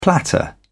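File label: "platter", British English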